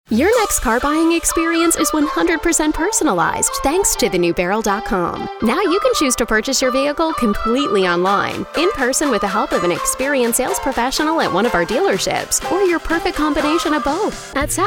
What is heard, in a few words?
Car Spots